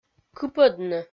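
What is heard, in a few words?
"кыпӧдны", Komi, female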